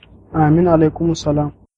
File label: hello, hausa